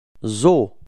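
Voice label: "zoo", French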